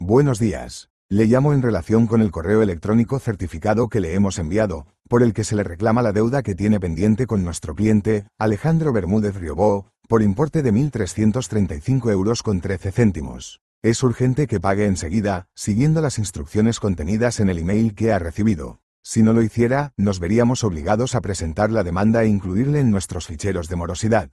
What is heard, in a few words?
audio call